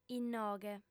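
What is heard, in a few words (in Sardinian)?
"inoghe", female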